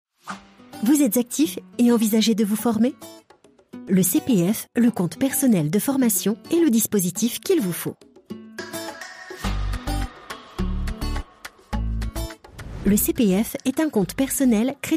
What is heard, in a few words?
french explainer videos; French voice over actress native from France, neutral accent.